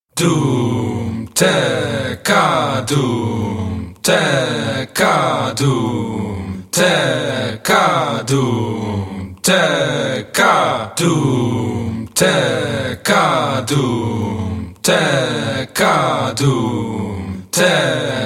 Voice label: Dum Tek Ka Vocals (fast), spoken